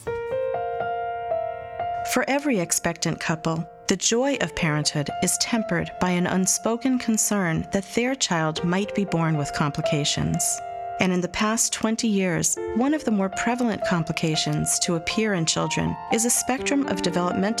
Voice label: autism, narration